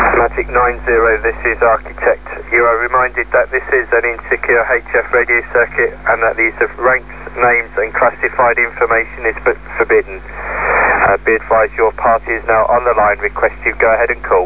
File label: warning, military; ARCHITECT PP warning message 2001